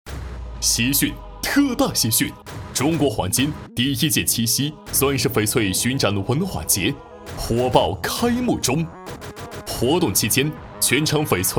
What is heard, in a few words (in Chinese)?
激情促销; 中国黄金